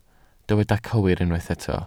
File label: "cywir", Welsh